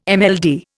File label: "MLD", Indian